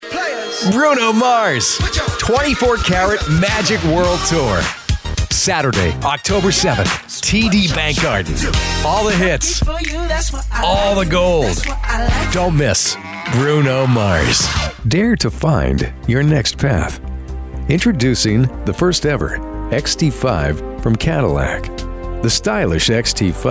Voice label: Commercial Voiceover

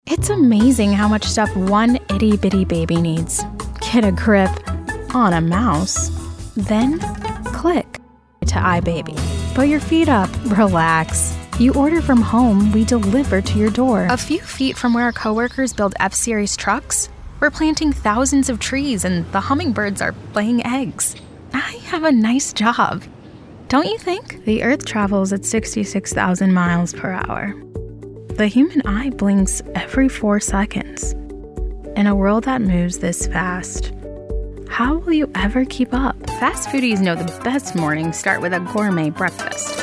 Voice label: commercial

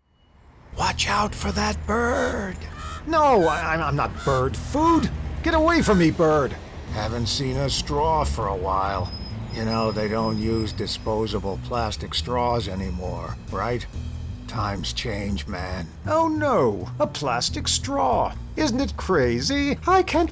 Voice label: character voice over

